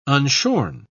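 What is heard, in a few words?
"unshorn", British English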